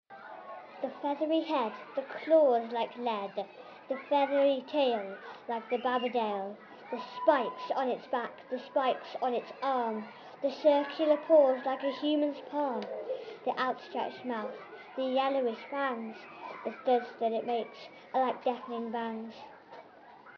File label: poetry; jabberwock